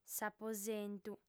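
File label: "s'aposentu", Sardinian female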